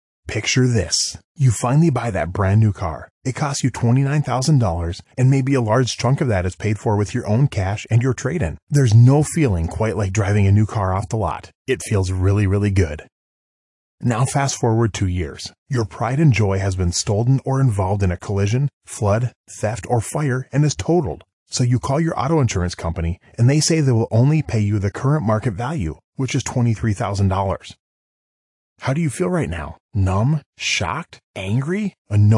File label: Whiteboard Explainer Male; Narration, Whiteboard/Explainer